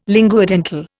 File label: "linguodental", Indian